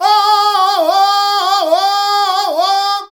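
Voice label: voice, Foreigns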